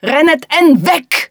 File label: german Female villager; age2